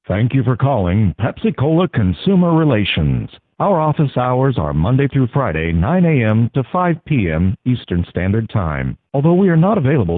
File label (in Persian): نمونه متن ivr برای ساعات غیر کاری; شرکت پپسی کولا